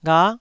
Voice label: "gha9", Taiwanese syllable